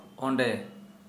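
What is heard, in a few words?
there, Santali, male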